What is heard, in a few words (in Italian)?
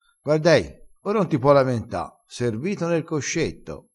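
"servito ner coscetto", dialettale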